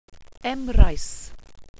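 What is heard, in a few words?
"emrais", welsh pronunciations; Wales/welsh names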